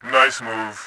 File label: nice, voice; Tribes2